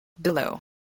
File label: "billow", English